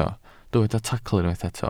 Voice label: "tacl", Welsh